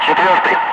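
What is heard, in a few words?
Russian bright; Ka-50; Wingman; DCS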